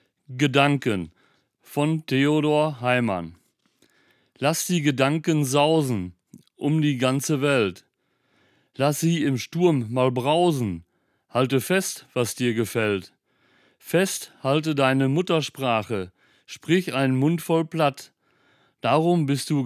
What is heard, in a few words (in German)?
Hochdeutsch; Buckenkamp; Plattdeutscher Weg; Nottuln